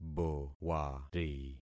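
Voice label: "bowari", concatenated syllables; Affixing experiment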